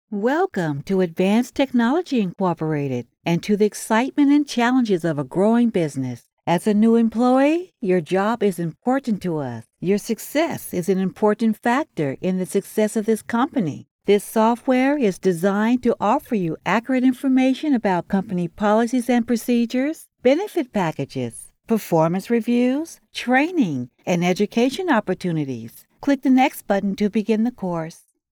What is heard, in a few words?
Corporate New Hire E-Learning